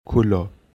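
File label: "Khula" Sambalpuri; Open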